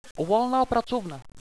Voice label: big office, radio bot